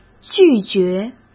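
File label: "拒绝", Mandarin Chinese; hsk; chinese-flashcards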